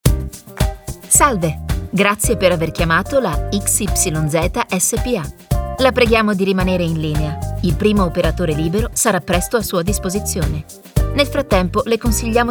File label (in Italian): SEGRETERIA TELEFONICA IVR base